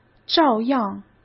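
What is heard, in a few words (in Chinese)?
"照样", Mandarin Chinese; hsk; chinese-flashcards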